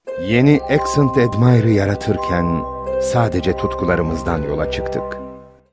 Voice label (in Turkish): sicak samimi, seslendirme